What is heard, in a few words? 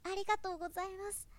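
boy, "arigatougozaimasu"; shonen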